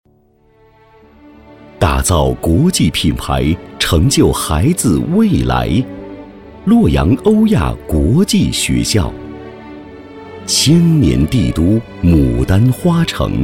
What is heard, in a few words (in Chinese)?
专题 学校 国际学校 沉稳, 男; 洛阳欧亚国际学校